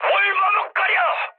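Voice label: Korean attack position mood high, speech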